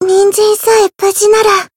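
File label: win barely, Japanese; yukino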